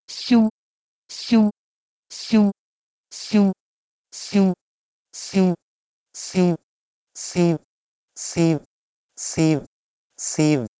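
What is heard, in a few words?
Proto-Indo-European syuh1 to Sanskrit siivyati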